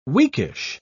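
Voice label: "weakish", British English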